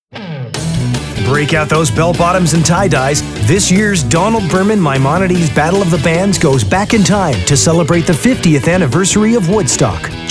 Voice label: radio spot